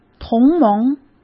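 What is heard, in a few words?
"同盟", Mandarin; chinese-flashcards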